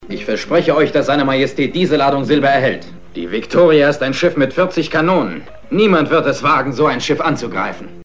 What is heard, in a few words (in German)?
Hörprobe des deutschen Synchronschauspielers; Lex Barker; Klar Schiff zum Gefecht 1956